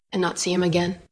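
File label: VoiceActing Spanish, female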